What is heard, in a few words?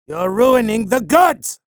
chatter negative; sorlag; respawn